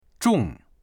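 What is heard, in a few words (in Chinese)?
"zhong" Chinese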